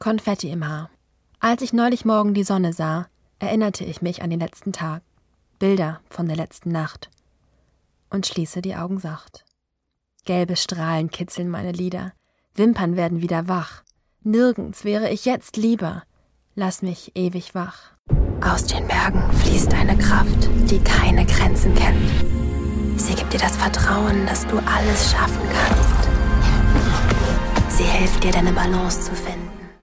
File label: German